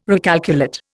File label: "recalculate", Indian